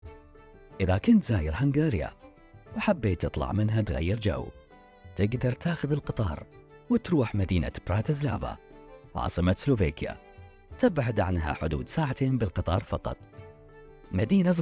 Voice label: Bahrini

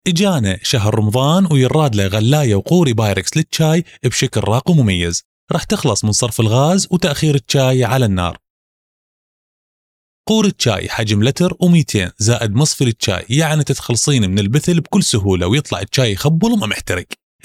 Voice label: Iraqi AD

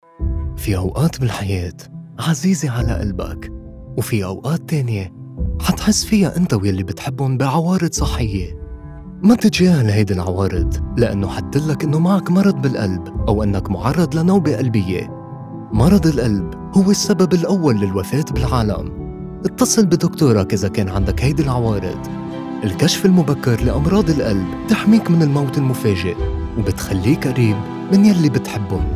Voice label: lebanese AD; lebanese voiceover

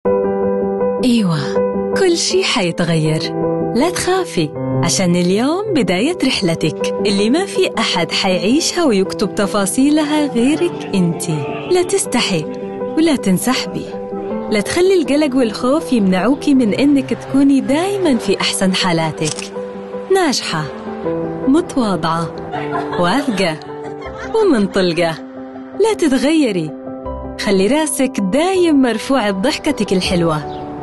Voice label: Saudi Hejazi

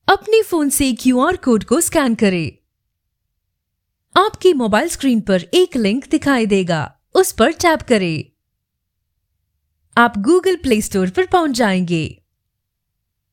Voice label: indian; Indian Voiceover

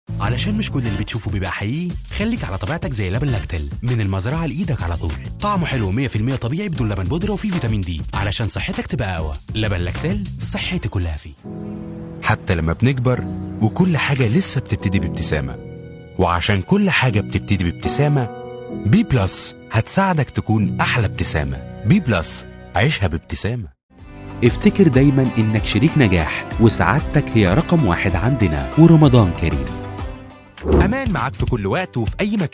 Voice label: Commercial Egyptian Accent; Commercial Showreel

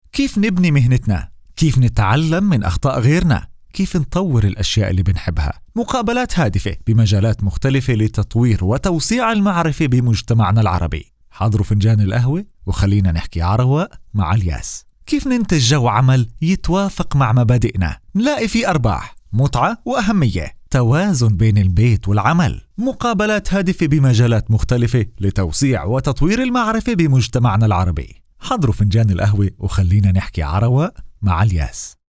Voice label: Jordanian promotion; jordanian voiceover

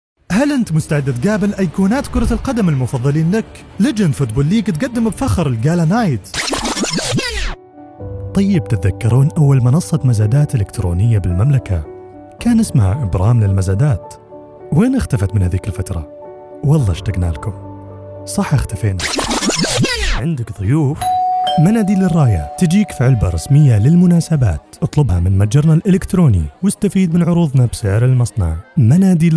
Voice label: Saudi najdi Ad

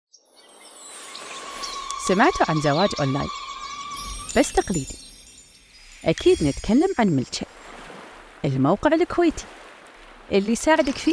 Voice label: kuwaiti

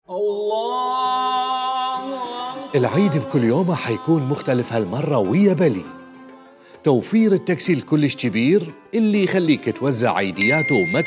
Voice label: iraqi, iraqi voiceover

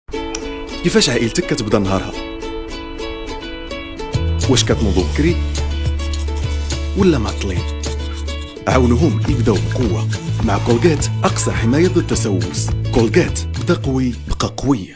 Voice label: moroccan voiceover

